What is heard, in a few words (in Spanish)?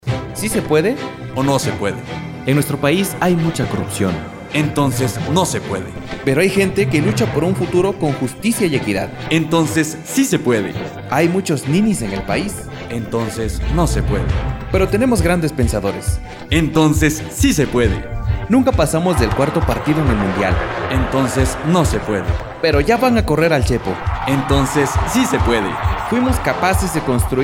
anticomercial